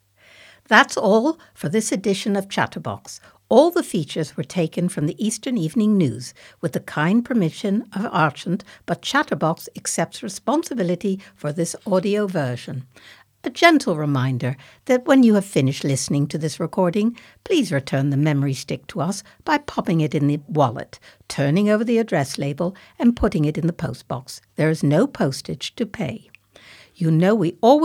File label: Closing Announcement